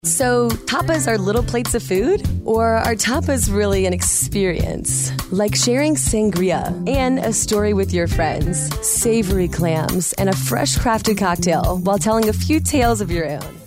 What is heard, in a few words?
girl next door